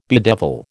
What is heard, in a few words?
"bedevil", American